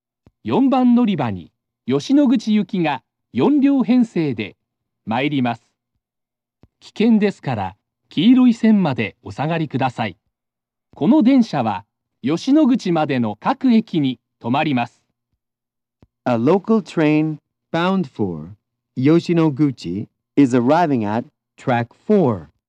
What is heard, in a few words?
approach local yoshinoguchi, kintetsu st announce; kashiharajingu st